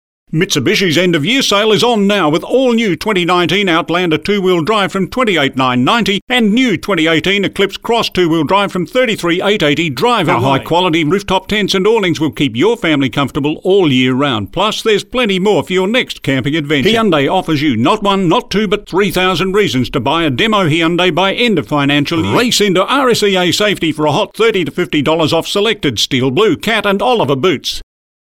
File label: Hard Sell